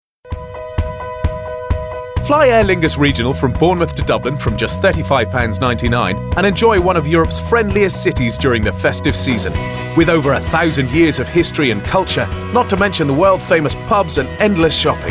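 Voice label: english scottish male commercial; voice demos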